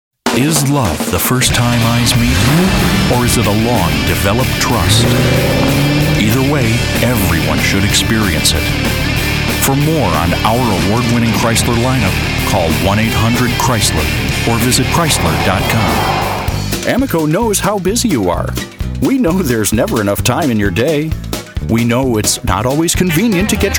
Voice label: Commercial